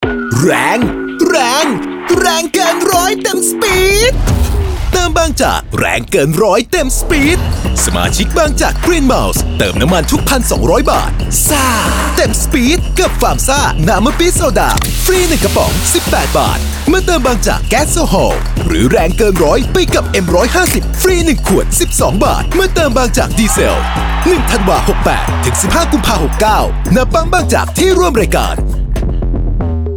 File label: radio spot; BANGCHAK